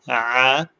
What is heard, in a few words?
short voyels arabic alphabet